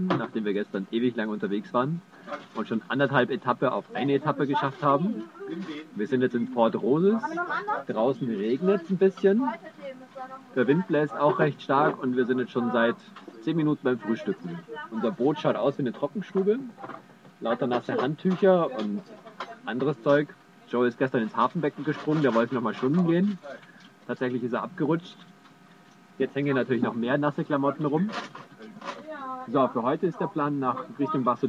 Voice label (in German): bordfunk